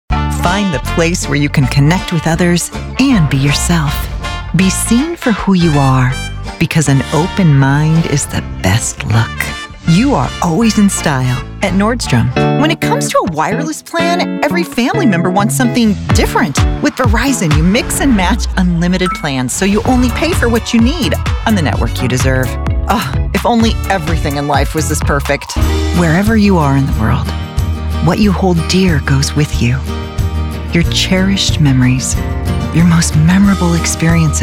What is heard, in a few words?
Commercials